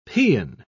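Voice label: "paeon", British English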